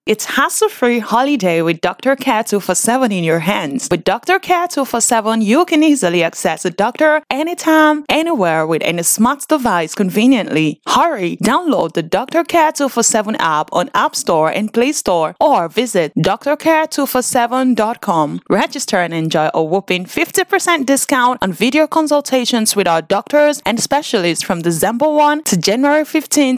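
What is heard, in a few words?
English West African F Travel Ad; accent of africa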